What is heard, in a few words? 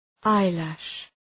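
"eyelash", English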